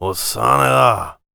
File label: warrior conversation emotion question